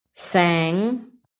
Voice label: "SANG", PHONETIC